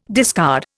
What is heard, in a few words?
"discard", Indian